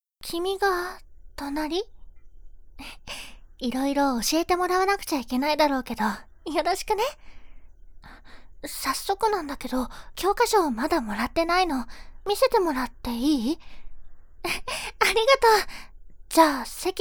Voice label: voice girl